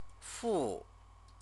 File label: "fu", Chinese; CTCFL; University of Oxford